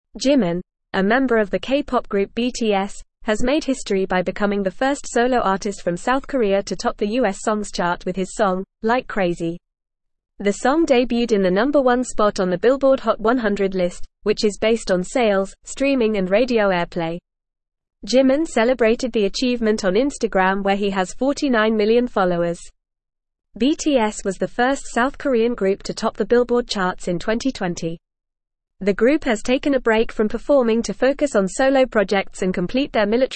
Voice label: English Newsroom Beginner FAST Reading; K Pop Star Makes US Chart History

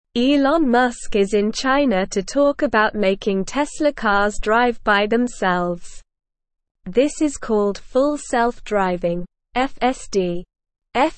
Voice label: English SLOW Reading; Elon Musk in China to Make Tesla Cars Drive Themselves

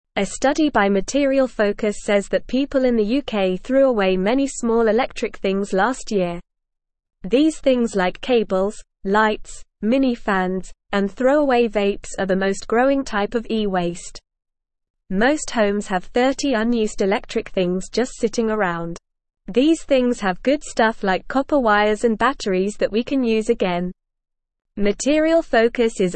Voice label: English Newsroom Beginner NORMAL Reading; People in the UK throw away lots of electric things

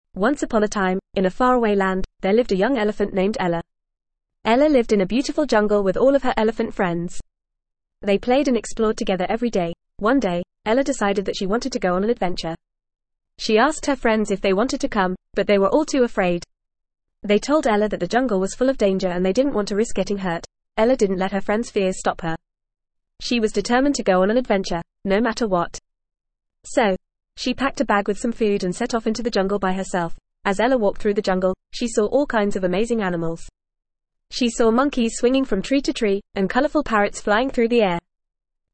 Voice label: ESL Short Stories for Kids FAST reading; Ella the Young Elephant

